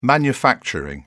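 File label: "manufacturing", English, British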